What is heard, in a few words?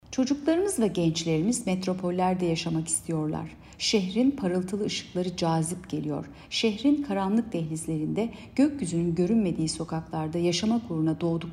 turkce